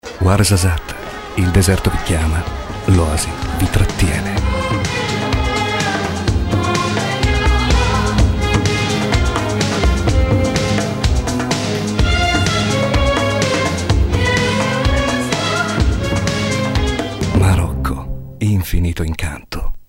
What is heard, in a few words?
Spot; Marocco